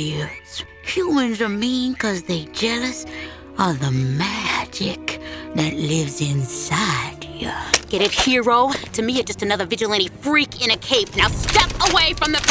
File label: ANIMATION